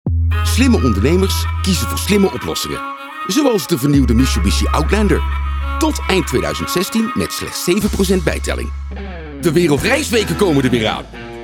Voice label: commercials